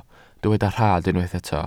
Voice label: "rhad", Welsh